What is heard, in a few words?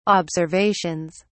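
"observations", British English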